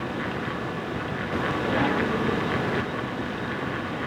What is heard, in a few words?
"Yuck", evp; French Lick